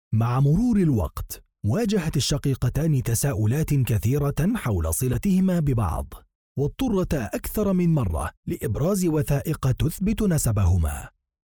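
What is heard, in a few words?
Arabic, Saudi Arabia, male, voice over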